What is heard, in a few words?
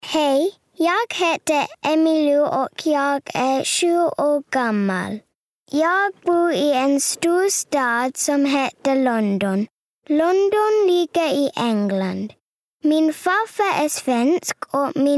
Intro Swedish